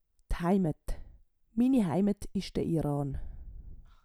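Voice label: "d Heimet", Swiss German vocabulary; Wortschatz Kapitel 8; Kapitel 8 Zusatzwortschatz 5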